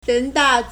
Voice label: "ren2 da4", Chinese